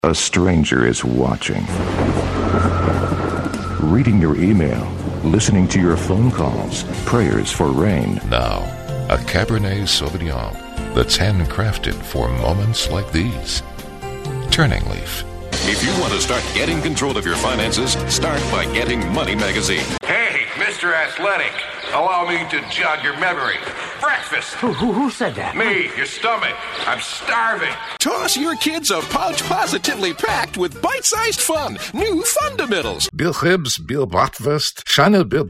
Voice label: English, english males

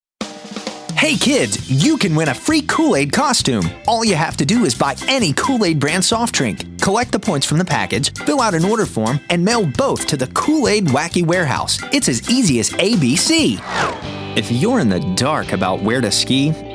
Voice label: English, english males

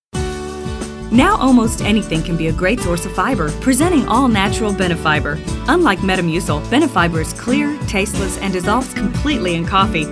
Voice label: English, english females

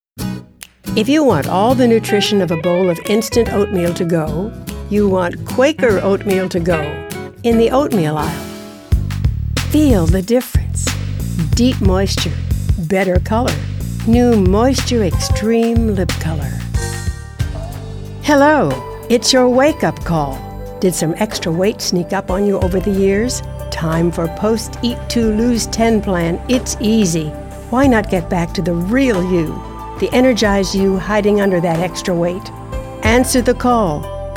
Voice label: english females

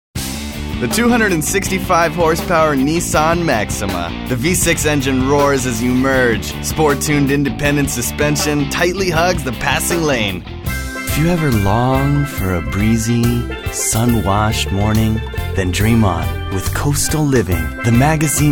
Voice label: English, english males

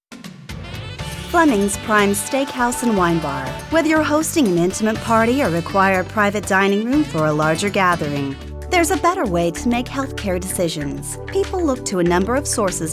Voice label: english females

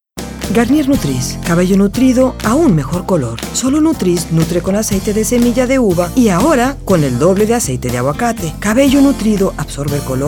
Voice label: Spanish females

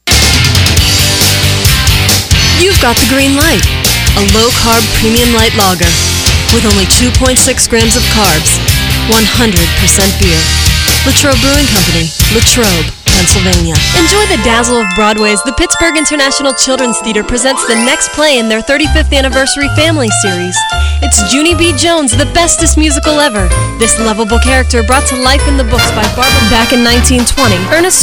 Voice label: english females

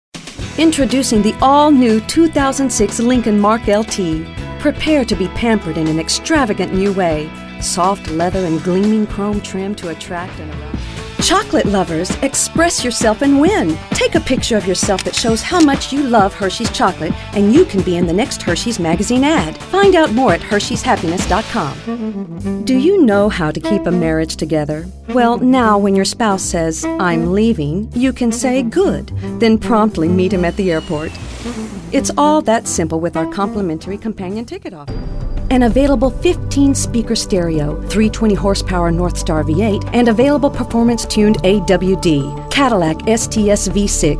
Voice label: english females

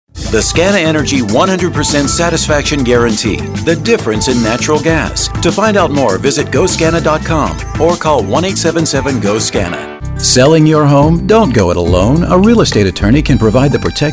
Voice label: English, english males

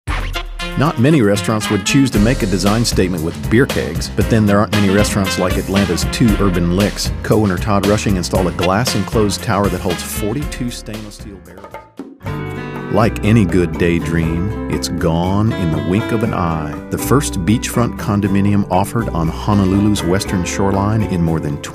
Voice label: english males

